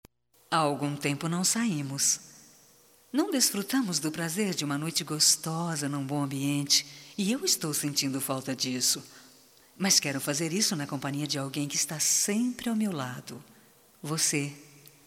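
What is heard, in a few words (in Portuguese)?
CONVITE PARA SAIR ESPOSO, female, Telemensagens, Só Voz; Telemensagens 2017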